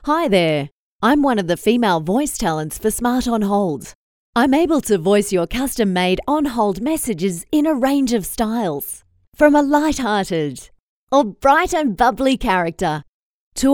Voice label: FEMALE